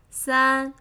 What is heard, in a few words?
"San", three